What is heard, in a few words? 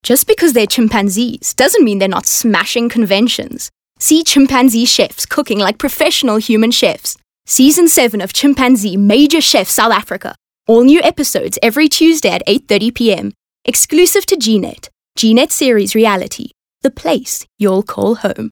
English Skill Promo